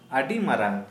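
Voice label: Santali male huge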